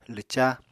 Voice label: "lwca", Apatani